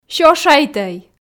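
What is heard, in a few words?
"сёшайтӧй", Komi, female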